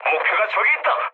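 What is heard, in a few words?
Korean near waypoint mood medium; War Thunder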